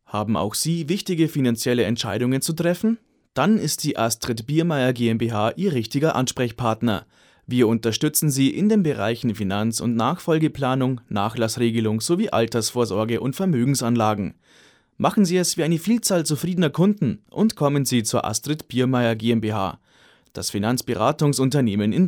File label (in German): Werbung